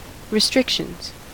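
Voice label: "restrictions", US English; pronunciations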